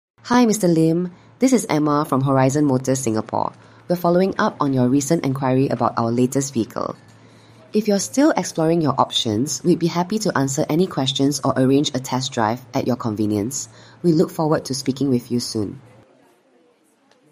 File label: lead re-engagement english singapore; ai voice agent singapore